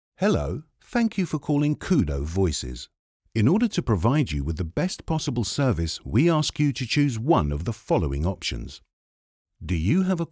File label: english british ivr